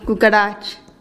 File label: "kukarach" cockroach, teotitlan